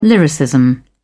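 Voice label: "lyricism", English, British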